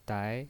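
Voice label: "dai", Mandarin Chinese pinyin voice, second tone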